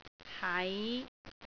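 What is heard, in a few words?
"hai5", Cantonese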